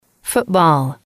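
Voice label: "football", English, female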